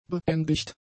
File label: "beendigt", German; adjectives